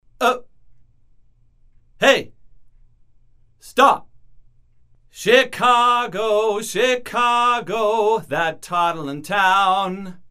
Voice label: Male